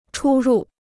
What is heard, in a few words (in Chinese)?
"出入", Chinese